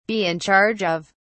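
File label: "be in charge of", English, British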